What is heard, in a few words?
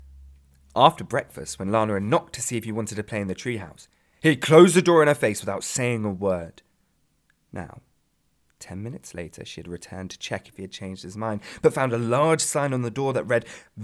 Audiobook